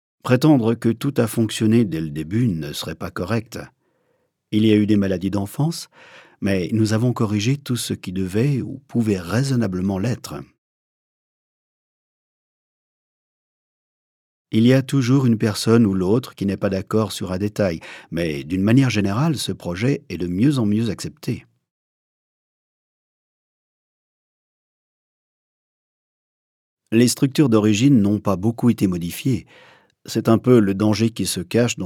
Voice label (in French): doublage interviews